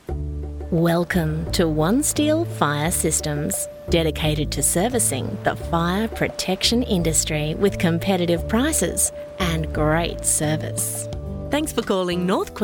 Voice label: Phone On Hold Message